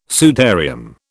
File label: "sudarium", British